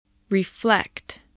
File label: "reflect", spelling voice